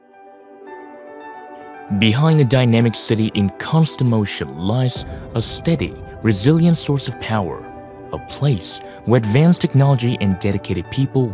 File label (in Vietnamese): Tieng Anh; MHI; thu am da ngon ngu